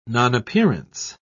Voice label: "nonappearance", en uk